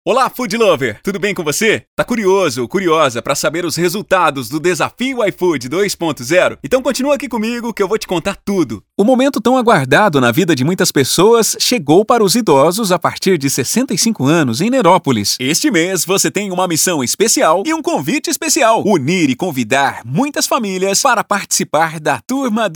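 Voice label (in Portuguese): VOZES MASCULINAS, Padrão Motivacional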